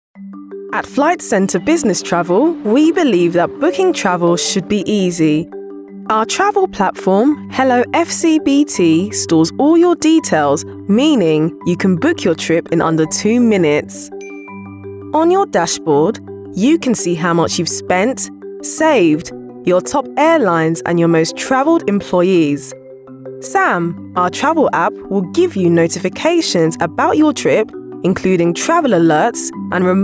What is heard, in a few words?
English F eLearning; elearning voice over